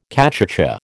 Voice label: "cachucha", American